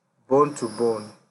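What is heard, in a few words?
"bone to bone", Nigerian Pidgin, male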